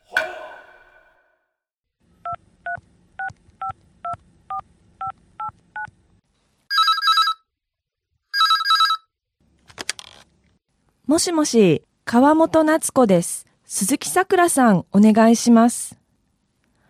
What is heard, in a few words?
dialog; JapanesePod101; Beginner Season 1